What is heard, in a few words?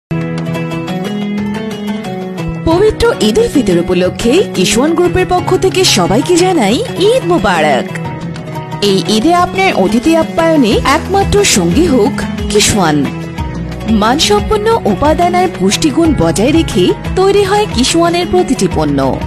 eid mubarak promotion